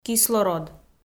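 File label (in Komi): "кислород", Komi, female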